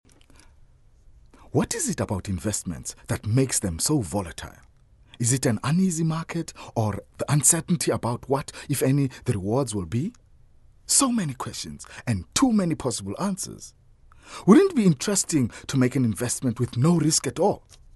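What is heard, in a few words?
english South African male commercial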